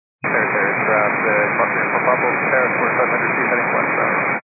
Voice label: 122.925 MHz; scanner radio